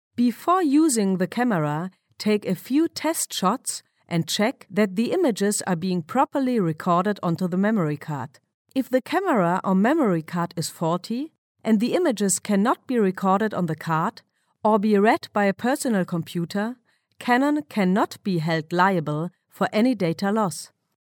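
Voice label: German female voice over, English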